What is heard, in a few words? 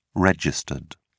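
"regd", British English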